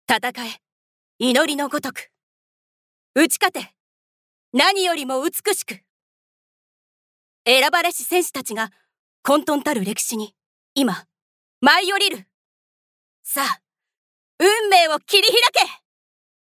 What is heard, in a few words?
narration power funiki